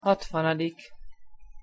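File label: "hatvanadik", Hungarian pronunciation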